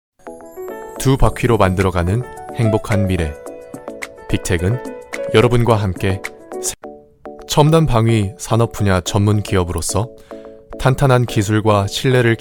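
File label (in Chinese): 韩语男_外语_小语种_轻松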